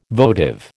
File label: "votive", American